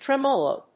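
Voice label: "tremolo", English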